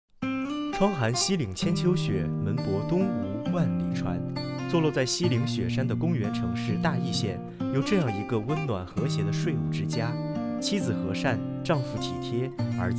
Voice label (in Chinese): 男老师